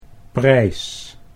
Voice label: "prijs", Dutch

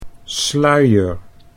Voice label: "sluier", Dutch

